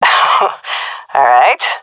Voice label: compliment, seal fem; vo; Counter Strike Model Pack; CS:GO